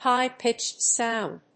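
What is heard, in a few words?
"high-pitched sound", English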